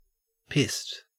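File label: "pissed", Australian English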